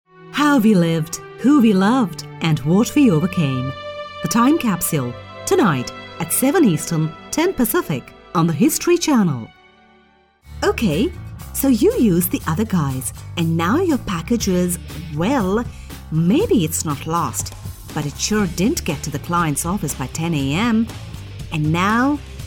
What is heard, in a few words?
hindi female english commercial